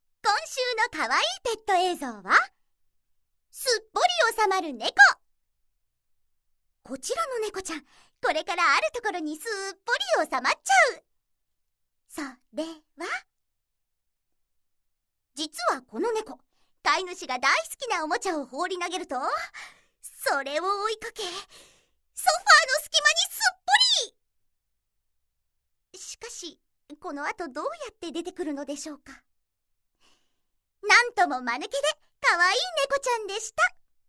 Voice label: narration